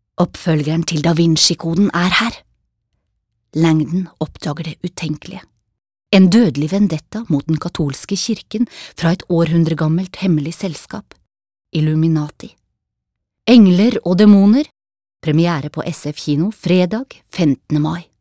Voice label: no movie trailer